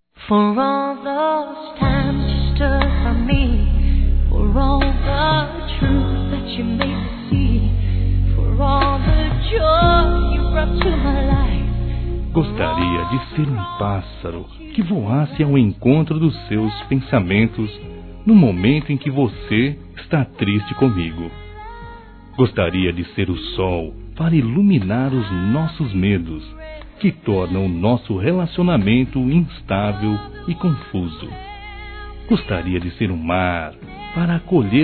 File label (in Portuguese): Desculpas Romântica - Masc; Telemensagens 2017